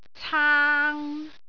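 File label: "caang3", Cantonese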